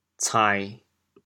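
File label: "cain1", Teochew, chaoyang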